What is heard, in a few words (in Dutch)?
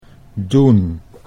"doen", Dutch